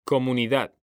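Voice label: "COMUNIDAD", Spanish, male; mekanta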